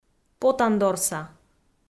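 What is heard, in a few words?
"потандорса", Komi, female; kpv audio dict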